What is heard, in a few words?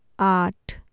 "aat", Urdu